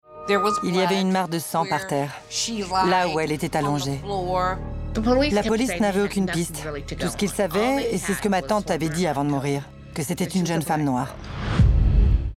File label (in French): voix off, pose; Cold Case Linda